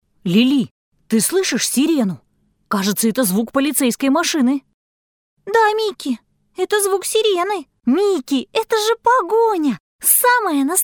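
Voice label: Russian female voice over, character; russian voice over talent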